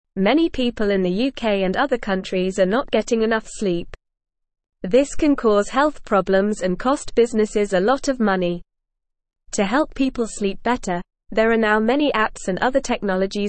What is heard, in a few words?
English Newsroom Beginner NORMAL Reading; Sleep Better with Technology and Apps